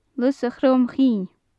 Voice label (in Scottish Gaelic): "lus a chrom chinn", gaelic; Faclan Nàdair